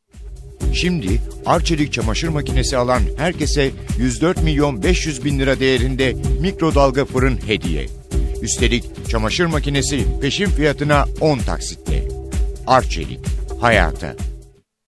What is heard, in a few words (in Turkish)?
reklam ses